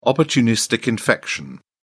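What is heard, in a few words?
"opportunistic infection", British English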